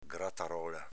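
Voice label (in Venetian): "gratarola", Veneto dialect; s.f. grattugia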